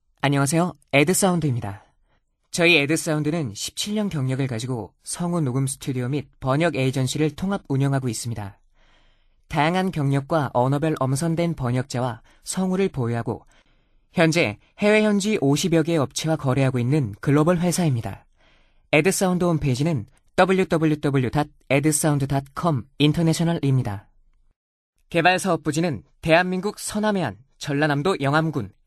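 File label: Korean, male; 남성 (Male); 광고; 나레이션; ARS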